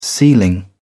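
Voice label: "sealing", British English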